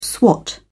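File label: "swat", British English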